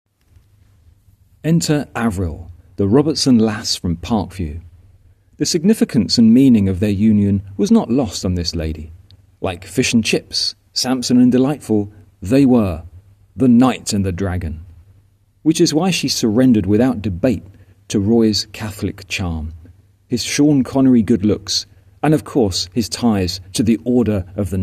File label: voiceover